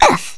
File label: ooff, Hungarian; Worms Armageddon